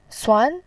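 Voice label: "suan", Mandarin Chinese, second tone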